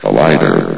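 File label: "collider", announcer voice; Source Engine; bshift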